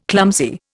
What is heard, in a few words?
"clumsy", Indian